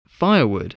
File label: "firewood", English